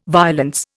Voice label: "violence", Indian